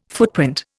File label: "footprint", Indian